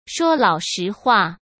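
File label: "说老实话", Mandarin Chinese; chinese-flashcards; hsk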